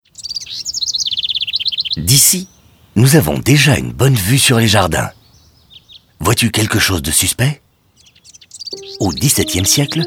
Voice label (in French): audioguide fr enfant; modave